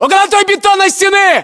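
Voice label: landmark near wall cinderblock, battlechatter, Russian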